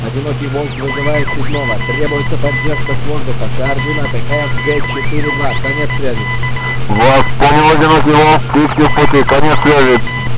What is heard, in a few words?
radiocall